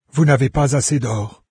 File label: low gold, fr, NARRATOR; Fate